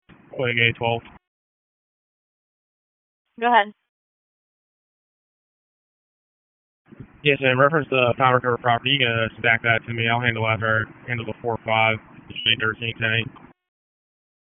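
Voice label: Dispatch, p25; WSO DISP 1; wake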